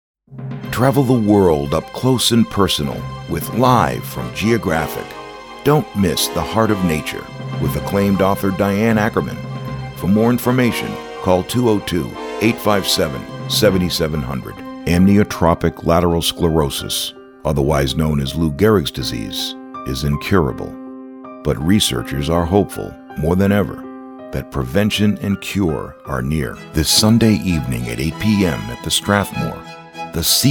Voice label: commercial